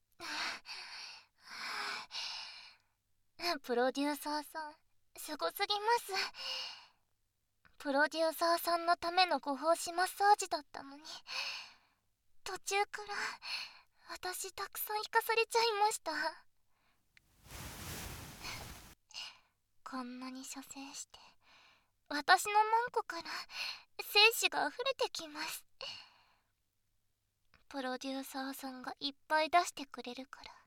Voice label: 添い寝＆受精確実あまあまピロートーク, ASMR; ドスケベアイドル; SEあり

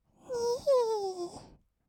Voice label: "にひー", kawaii voice; ASMR; 色々なワンショット; 1200 kawaii voice!!

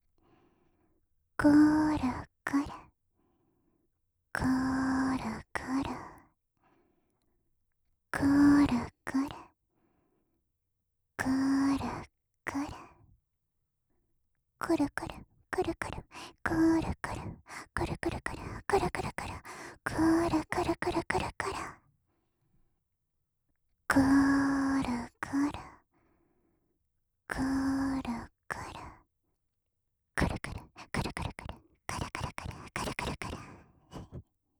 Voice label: ロリクルクルランダム, 右耳; オノマトペ

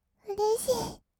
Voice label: "うれしいー", kawaii voice; ASMR; 色々なワンショット

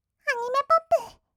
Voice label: アニメポップ, kawaii voice; 1200 kawaii voice!!; 音楽ジャンル

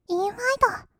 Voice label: "インファイト", kawaii voice; ASMR; 1200 kawaii voice!!; 音ゲー

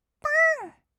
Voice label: "ぽーん", kawaii voice; 1200 kawaii voice!!; 色々なワンショット

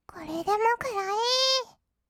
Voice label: "これでもくらえー", kawaii voice; ASMR; 色々なワンショット

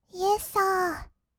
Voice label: "イェッサー", kawaii voice; ASMR; 色々なワンショット

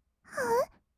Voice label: あう, kawaii voice; ASMR; 色々なワンショット

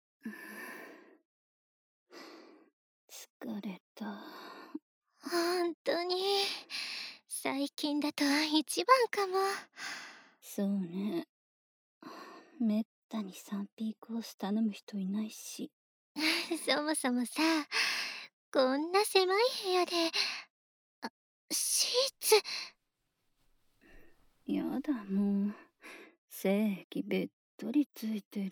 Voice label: 添い寝♪, 環境ノイズ無し; ASMR

